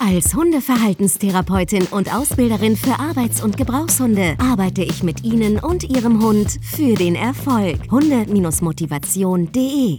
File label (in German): Funkspot